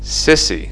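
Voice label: "sissy", English; fonetica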